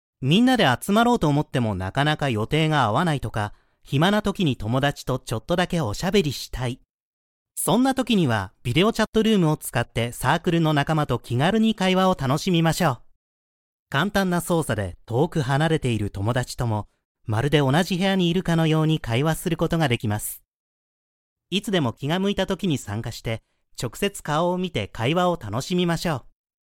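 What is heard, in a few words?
Japanese, video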